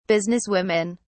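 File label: "businesswomen", British English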